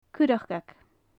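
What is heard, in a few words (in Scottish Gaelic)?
"curracag", Gaelic; lapwing; curracagan, adharcain-luachrach, doireagain; Faclan Nàdair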